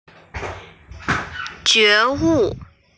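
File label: "jue wu", Chinese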